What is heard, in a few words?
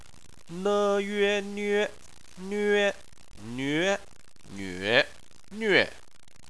"nve", chinese